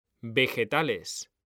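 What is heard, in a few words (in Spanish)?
"vegetales", Spanish; mekanta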